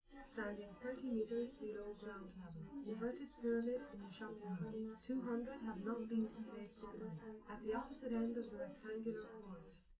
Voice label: female live rec; SiSEC11; underdetermined